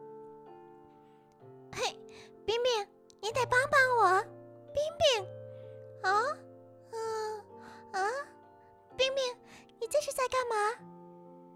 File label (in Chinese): 动画 角色 配音